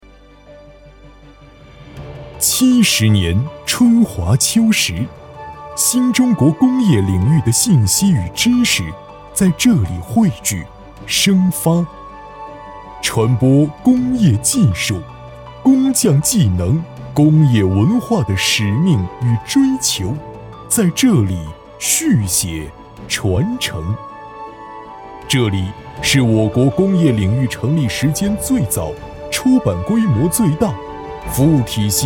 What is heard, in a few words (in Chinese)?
专题 政府 温暖, 男; 院社70周年宣传片文案